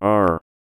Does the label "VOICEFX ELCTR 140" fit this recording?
Yes